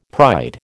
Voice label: "pride", American